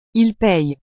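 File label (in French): "ils payent", French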